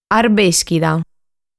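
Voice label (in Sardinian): "Arbèschida", Sardinian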